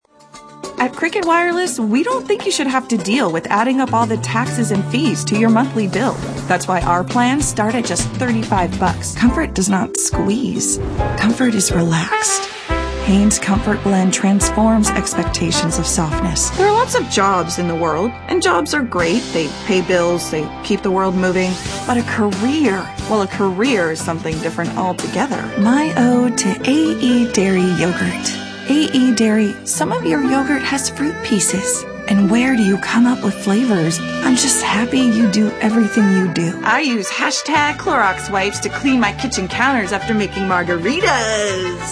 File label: female, late 20s mid 30s contemp